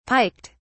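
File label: "piked", British English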